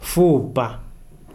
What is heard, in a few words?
"bone", Kalanga